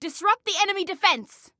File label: Attack Disrupt, Female